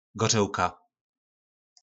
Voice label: "gorolka", nářečí Po našimu; Kořalka; Gořołka

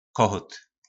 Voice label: "Kohót", nářečí Po našimu; Kohout

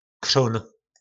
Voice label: "Křón", nářečí Po našimu; Křen

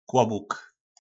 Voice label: "Kłobuk", nářečí Po našimu; Klobouk

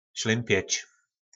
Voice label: "Šlympěč", nářečí Po našimu; Mrholit

